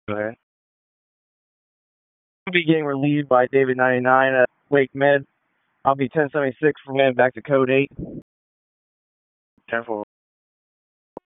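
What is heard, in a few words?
Dispatch, p25; WSO DISP 3; wake p25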